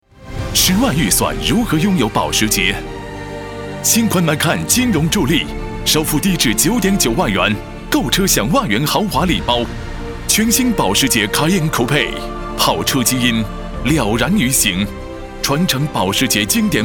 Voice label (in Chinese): 国语男 电台 广告; 保时捷